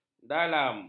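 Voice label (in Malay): "dalam", Malay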